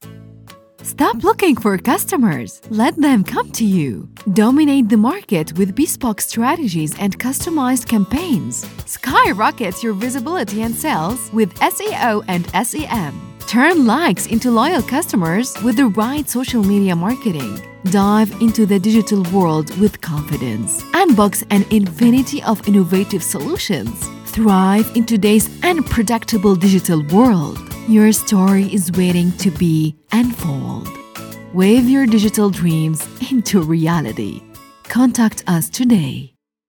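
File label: american accent ad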